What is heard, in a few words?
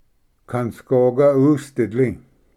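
"kanasgoga usditli", Cherokee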